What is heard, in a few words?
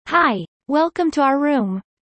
fast; studying abroad